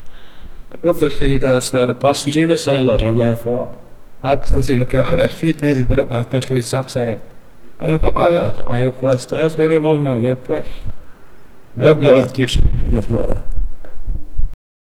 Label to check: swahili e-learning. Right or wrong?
Wrong